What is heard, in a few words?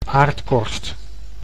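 "aardkorst", Dutch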